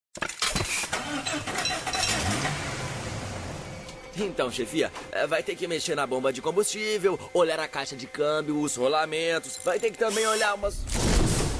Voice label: CARICATO

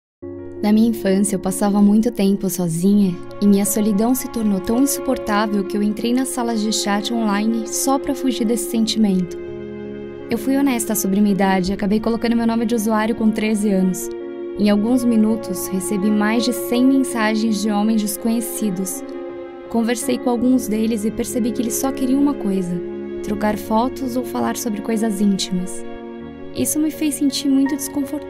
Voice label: Narracao

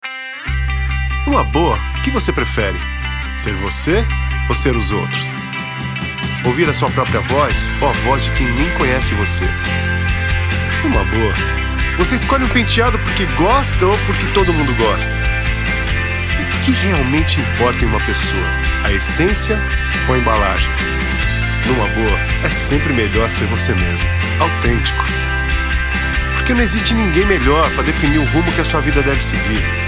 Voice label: Coloquial; Suzuki

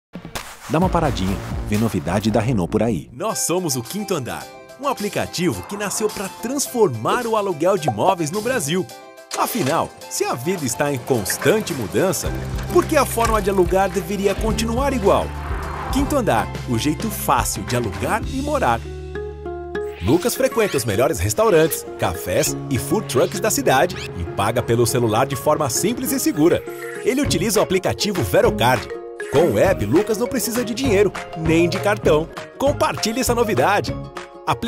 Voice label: VOZ NATURAL; REPERTORIO

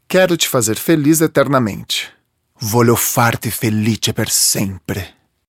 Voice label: Spot Radio Aula ITALIANO; Boticario

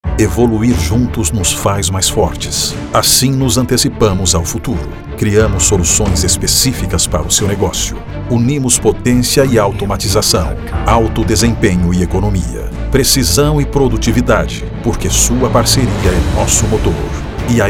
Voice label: Institucional

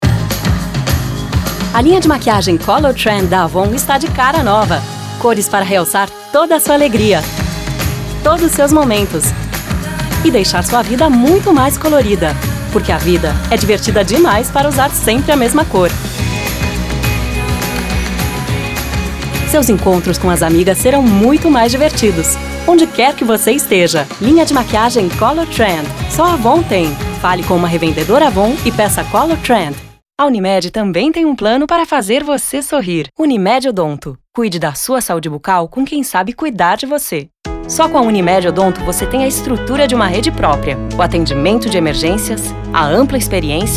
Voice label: Jovem, Português

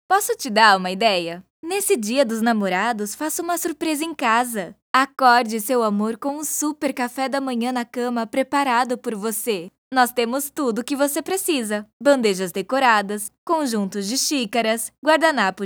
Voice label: Comercial

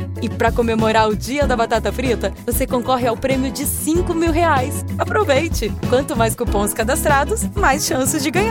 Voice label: promoção; Bem Brasil

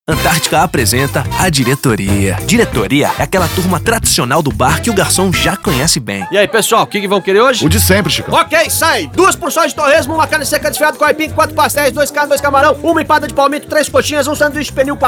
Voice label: voz sotaque carioca; Antarctica

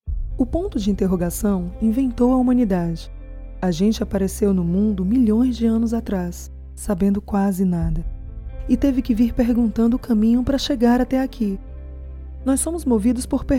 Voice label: spot publicitário; Petrobras

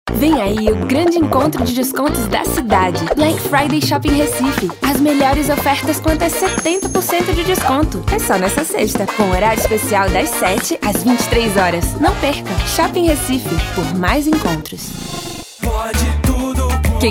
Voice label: Promocional

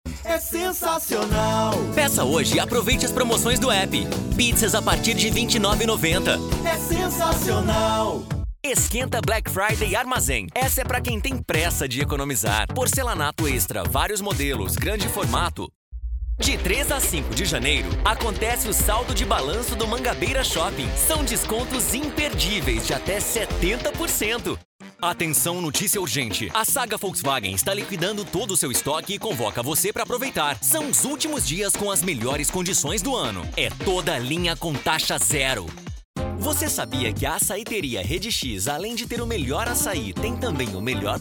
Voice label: DEMO REEL VAREJO

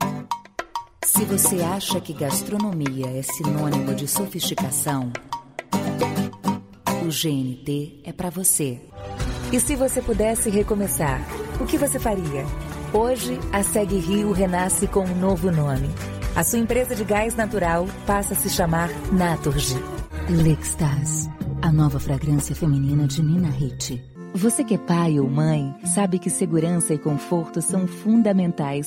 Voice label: Locucao Classica Voz Grave Soprosa

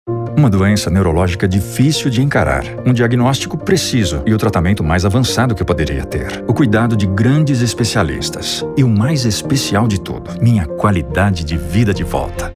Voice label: NEUROLOGIA SPOT VOZ MADURA; HCOR

